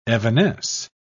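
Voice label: "evanesce", British English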